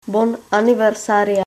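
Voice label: birthday, Lingua Franca Nova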